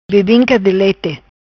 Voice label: "Bebinca Di Lete", Macanese